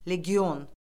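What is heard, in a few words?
"legion", Azerbaijani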